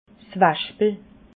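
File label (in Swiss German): "waschpel", Alsatian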